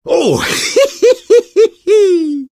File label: taunt cong fun; engy; tf; taunts